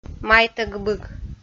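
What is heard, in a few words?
"майтӧг быг", Komi, female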